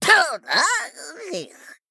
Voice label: Male Gnome Death

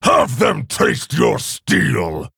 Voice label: Male Orc Bark; Captain Galvangar

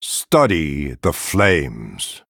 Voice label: Male Night Elf Greetings

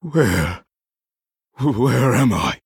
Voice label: Male Human

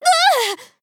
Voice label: Female Human Death

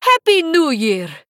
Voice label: Female Draenei HAPPY NEW YEAR

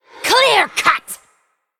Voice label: Undead Human Emote Attack

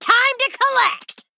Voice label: Female Goblin Attack

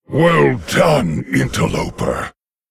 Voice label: Male Lich Well Played

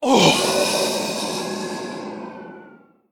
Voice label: Female Elemental Death

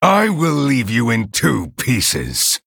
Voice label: Male Night Elf Emote Threaten

